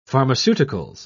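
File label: "pharmaceuticals", English, British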